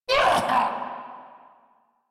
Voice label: Pain Ghoul Saw, en; Grineer Ghoul Sawman